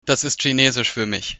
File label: incomprehensible, german